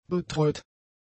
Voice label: "betreut", German; adjectives

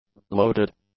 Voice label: "loaded", english; adjectives

